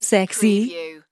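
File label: Female Says Sexy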